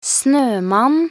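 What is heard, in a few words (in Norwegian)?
"snømann", Norwegian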